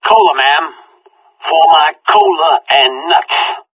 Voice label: conversation; Left 4 Dead 2